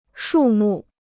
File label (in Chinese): "树木", Chinese